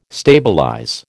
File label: "stabilize", American